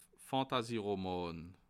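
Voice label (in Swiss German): "fantasyroman", Bas Rhin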